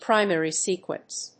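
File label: "Primary sequence", English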